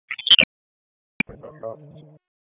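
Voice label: Police Southeast District Dispatch, p25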